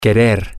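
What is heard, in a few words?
to Want, spanish, male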